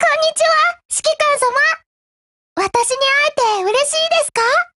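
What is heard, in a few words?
Japanese, Voice; GF Alarm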